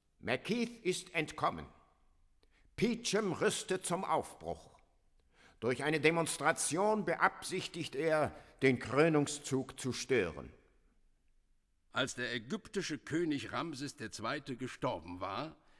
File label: Dialog; The Threepenny Opera [1988 German Studio Cast]